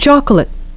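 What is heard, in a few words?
"chocolate", English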